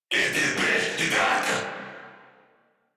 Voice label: Surprised Crewmen, en

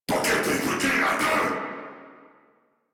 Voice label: Player Spotted, Battle Barks

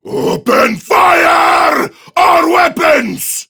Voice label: Enemy Spotted Prime Grineer Male

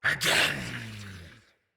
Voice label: Attack Knight Male, Battle; Barks; Dialog; Mendicant

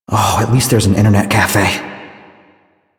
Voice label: Citizen Male, en, Mall; Warframe; Citizens

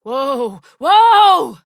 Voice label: Horse Stop Adult; Duviri

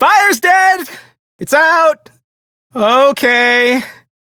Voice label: Crew Fix Fire, Male; Dialog; Warframe; Railjack Crew; Perrin Sequence

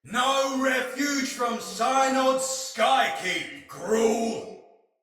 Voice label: Sight Lost Shout, Soldier Male; Battle; Barks; Dialog; Sinecure Soldier Male B

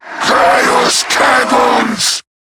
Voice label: Cover Me, en; Zariman Wraith

